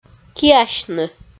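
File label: "киасьны", Komi, female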